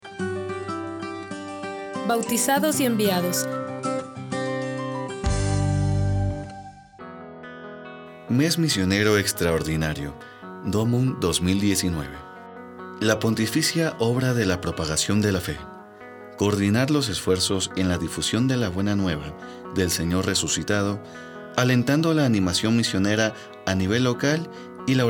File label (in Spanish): Domund espanol; MDomund 2019